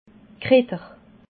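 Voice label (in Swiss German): "kritter", Alsatian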